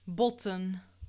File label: "botten", Dutch; klankstabiliteit